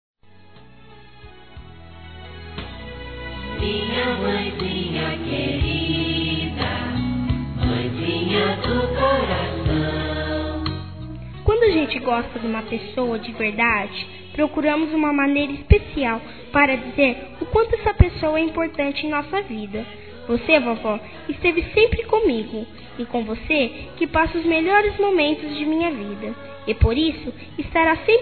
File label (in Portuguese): P AVÓ F CRIANÇA, Telemensagens, Dia das Mães; Telemensagens 2017